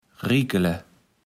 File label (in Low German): "riekele", Platt